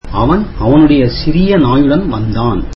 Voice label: tamil he came with his small dog

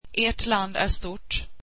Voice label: your country is big, swedish

